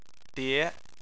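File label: "die" second tone, Chinese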